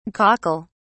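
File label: "cockle(s)", British English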